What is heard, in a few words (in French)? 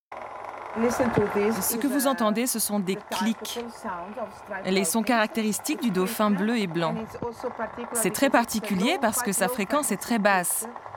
voiceover doc animalier, voix